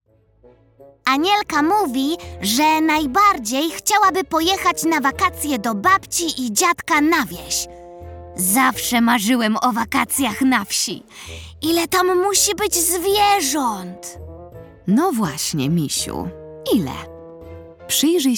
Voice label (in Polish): narracja; czasopismo mis; lato; w gospodarstwie rozmowa